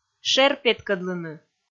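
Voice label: "шер петкӧдлыны", Komi, female; kpv audio dict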